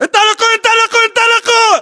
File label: order move generic, battle chatter, english